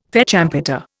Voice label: "fête champêtre", Indian

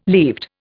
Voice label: "leaved", Indian

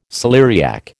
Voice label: "celeriac", American

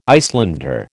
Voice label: "Icelander", American

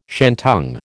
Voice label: "shantung", American

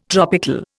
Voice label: "tropical", Indian

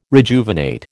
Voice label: "rejuvenate", American

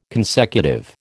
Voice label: "consecutive", American

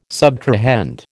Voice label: "subtrahend", American

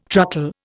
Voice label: "truckle", Indian

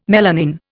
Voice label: "melanin", Indian

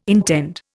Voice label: "intend", Indian

